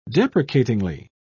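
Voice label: "deprecatingly", English, British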